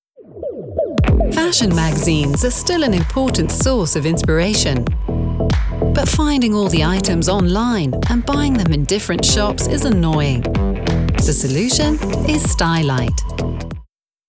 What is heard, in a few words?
american english female Fashion; Stylight